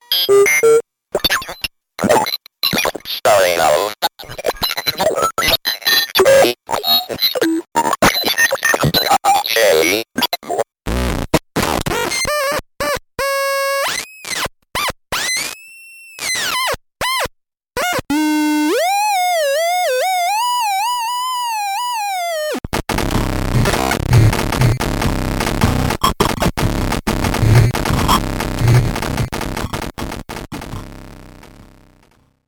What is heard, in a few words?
Speak And Read